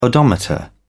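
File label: "odometer", English, British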